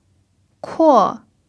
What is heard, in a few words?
"kuo4", Mandarin pinyin